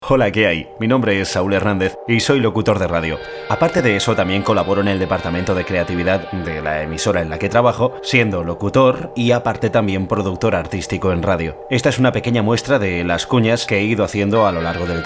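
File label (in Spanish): generico España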